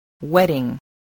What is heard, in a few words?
"wedding", spelling